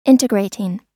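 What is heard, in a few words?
"integrating", US English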